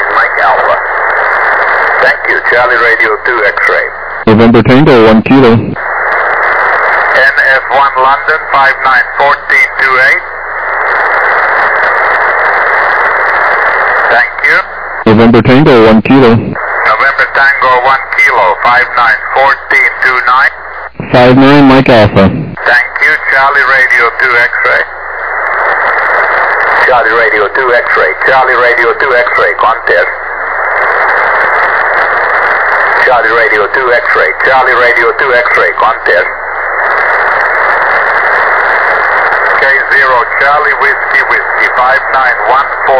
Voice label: USB 28MHz; ARRL10M